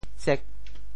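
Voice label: "tsek8", Teochew; 潮州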